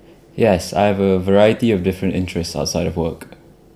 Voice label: interviewee male filler